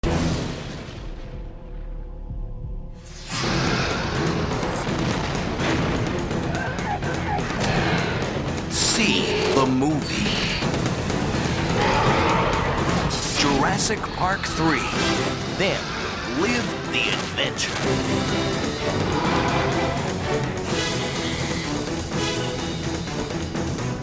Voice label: english american movie trailers